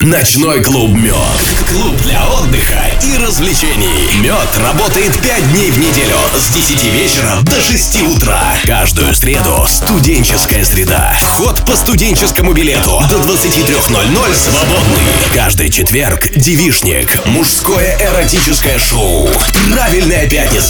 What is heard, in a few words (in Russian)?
РЕКЛАМНЫЙ РОЛИК; Мёд - Общий